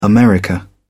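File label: "america", English, British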